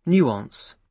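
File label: "nuance", British English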